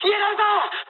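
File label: aircraft damaged mood high, speech japanese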